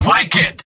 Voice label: scout cheers; video games; source engine; tf; mvm